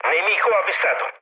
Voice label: enemy detected mood low, speech it; War Thunder